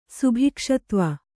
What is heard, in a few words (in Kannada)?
"ಸುಭಿಕ್ಷತ್ವ", Kannada